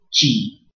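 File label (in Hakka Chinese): "zhi4", 客語 海陸腔; 脂; 紙; 志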